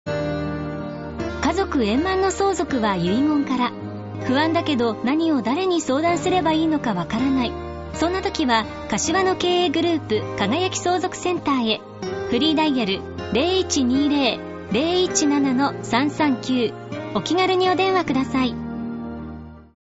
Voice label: radio cm